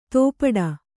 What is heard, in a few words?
"ತೋಪಡ", kannada